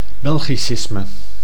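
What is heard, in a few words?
"belgicisme", Dutch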